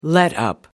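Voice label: "letup", British English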